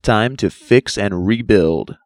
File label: announcer, red fixtime; construct